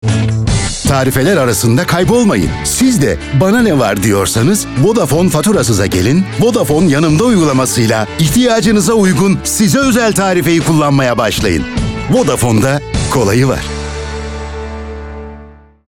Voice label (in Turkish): enerjik; seslendirme